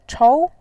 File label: "chou2", pinyin